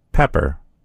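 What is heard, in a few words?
"pepper", American English; gcompris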